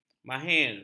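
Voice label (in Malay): "mahir", Malay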